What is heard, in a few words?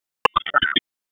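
Police Southwest District Tac, radio; Raleigh; Tac 1; wake; p25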